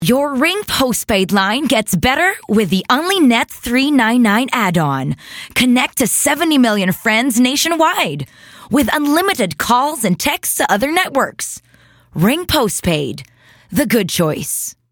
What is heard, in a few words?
English, energetic, excited, selling